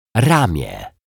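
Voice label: "ramie", Polish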